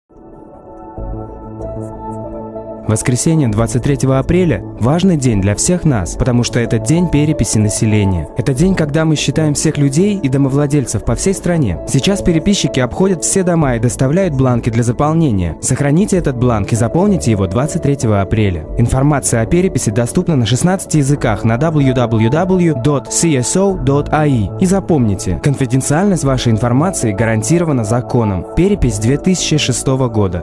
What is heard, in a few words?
Russian; census